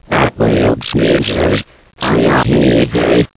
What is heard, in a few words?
gibberish